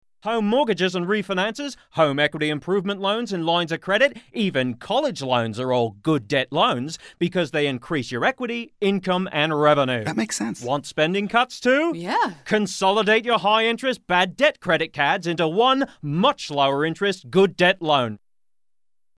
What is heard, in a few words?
Australian Accent